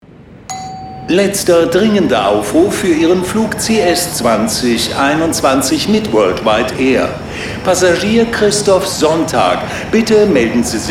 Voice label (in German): Ansage - Flughafen